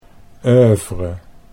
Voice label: "oeuvre", Dutch

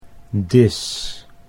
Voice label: "dis", Dutch

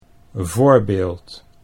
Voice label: "voorbeeld", Dutch

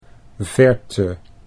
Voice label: "verte", Dutch

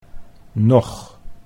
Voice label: "nog", Dutch

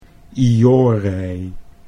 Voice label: "iorei", Dutch

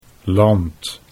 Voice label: "land", Dutch

